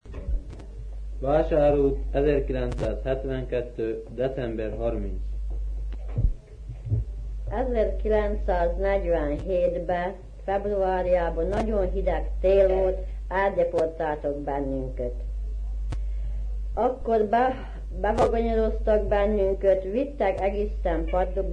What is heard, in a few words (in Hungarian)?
nyelvjaras gyujtesek